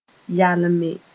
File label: "yalme", Moore